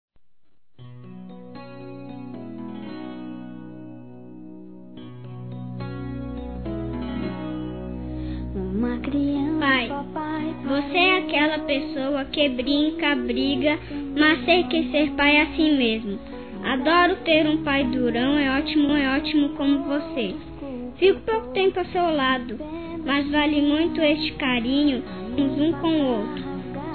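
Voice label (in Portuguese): criança masculino, telemensagens dia dos pais; Telemensagens 2017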